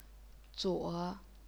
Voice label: "zuo3", chinese pinyin